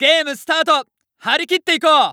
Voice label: Announce Start Game, JPN; Eternal Return

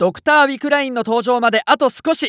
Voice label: Announce Wickeline Prep, JPN; Eternal Return

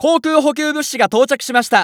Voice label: Announce Air Supply Drop; Eternal Return

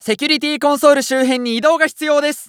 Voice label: Announce 2nd Safe Area Ready, JPN; Eternal Return

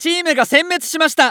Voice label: Announce Wipe Team, JPN; Eternal Return

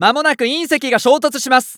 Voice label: Announce Meteor Prep, JPN; Eternal Return

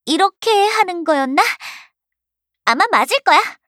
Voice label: trap, Voice Line, KOR; Eternal Return

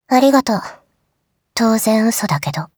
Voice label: call Remote Drone, JPN; Eternal Return; Katja

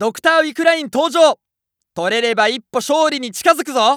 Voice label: Announce Wickeline Appear, JPN; Eternal Return

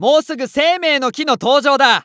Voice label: Announce Tree Of Life Prep, JPN; Eternal Return

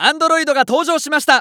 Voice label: Announce New Boss Appear, JPN; Eternal Return

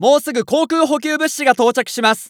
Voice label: Announce Air Supply Ready, JPN; Eternal Return

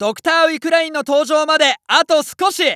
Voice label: Announce Wickeline Prep, JPN; Eternal Return

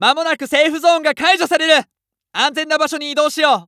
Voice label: Announce 2nd Safe Area Start, JPN; Eternal Return

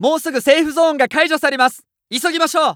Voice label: Announce 2nd Safe Area Start, JPN; Eternal Return

